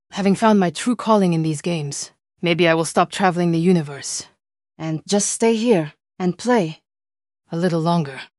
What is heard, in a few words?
happy; fertig classic games